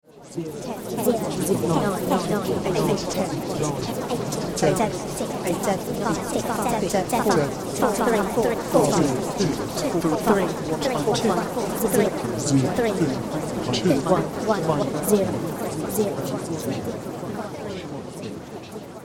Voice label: Confused Countdown Garbled Random Sequence British Female Voice; Recorded Voice; science fiction sound effect